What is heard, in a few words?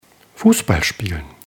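"fussballspielen", German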